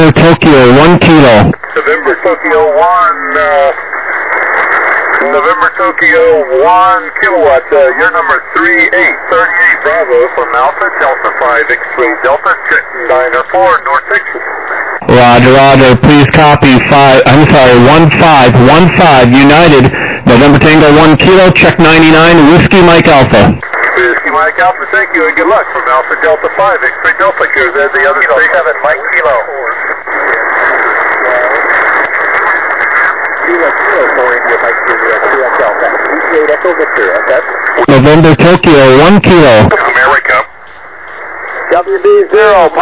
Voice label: USB 21MHz